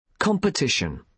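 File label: "competition", British English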